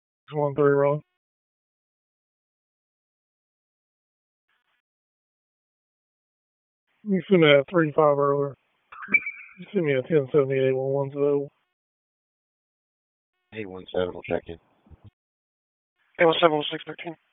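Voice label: Police Dispatch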